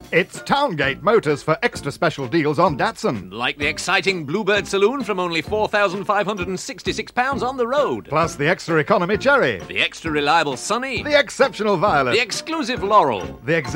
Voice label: Radio Advert; Radio Hallam; Towngate - Datsun Deals

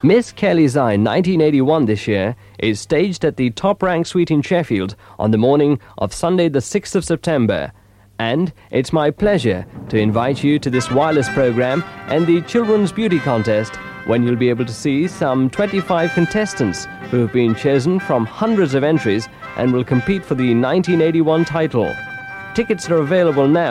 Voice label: Radio Advert; Radio Hallam; Miss Kellys Eye 1981

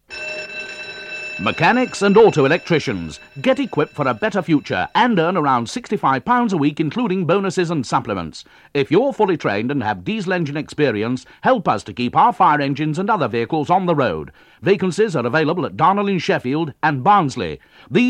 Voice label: Radio Hallam Advert; Fire Service Engineers

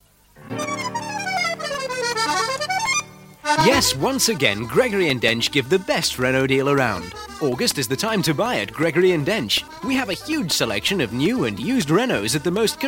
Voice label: Radio Advert; Radio Hallam; Gregory And Dench Renault

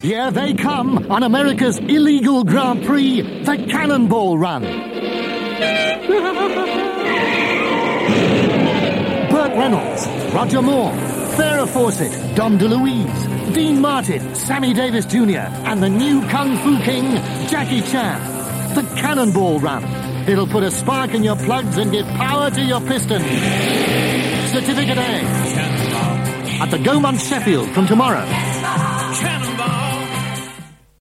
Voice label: Radio Hallam Advert; Cannonball Run

